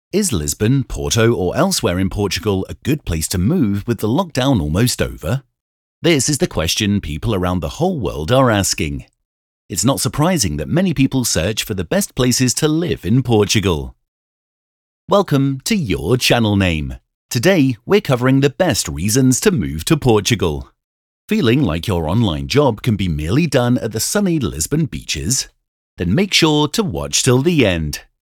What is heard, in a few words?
UK Male; Studio Nonagram